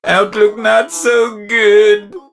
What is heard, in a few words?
meeple hurt; 奥利